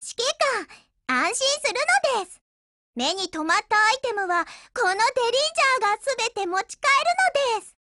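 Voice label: OPERATION BEGIN Japanese, Voice; GF Alarm; Derringer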